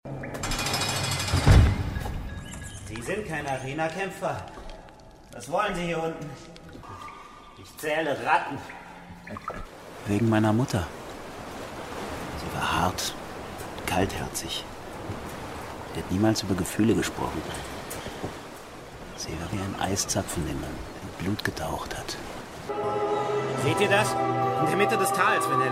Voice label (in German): hoerspiel; klabauterkrieg